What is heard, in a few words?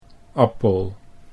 "appel", Dutch